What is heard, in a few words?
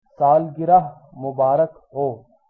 birthday, hindi